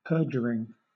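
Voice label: "perduring" English, British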